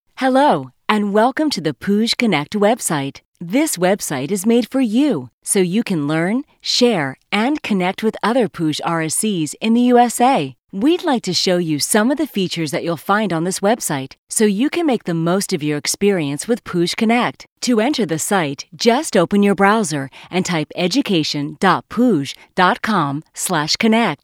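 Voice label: narration, female voice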